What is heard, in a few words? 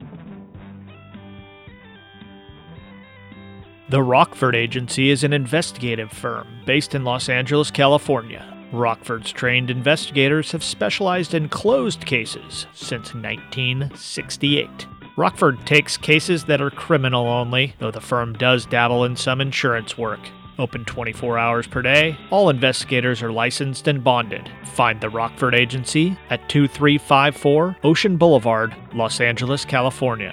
ad